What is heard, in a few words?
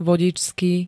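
"vodičský", Slovak; vodičský príd.: v. preukaz; v-á prax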